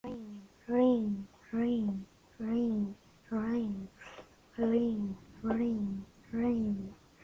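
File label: "ring", spoken word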